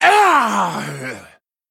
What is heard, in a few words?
Male Troll Death